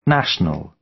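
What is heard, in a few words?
"national", British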